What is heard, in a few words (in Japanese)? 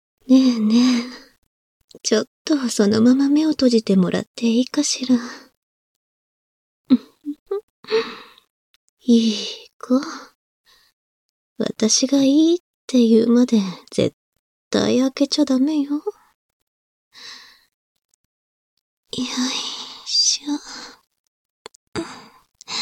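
両側耳舐め囁き攻め（効果音なし）, ASMR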